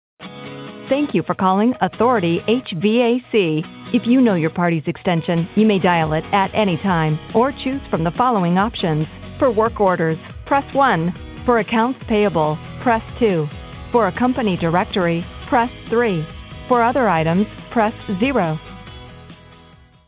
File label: HVAC Business Hours Prompts; Authority HVAC